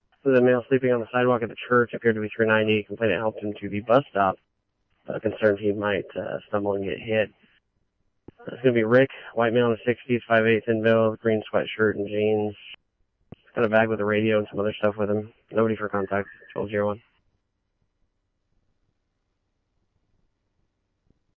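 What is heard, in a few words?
scanner, Phoenix Police Department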